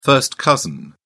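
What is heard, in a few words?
"first cousin", British English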